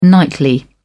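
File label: "nightly", English, British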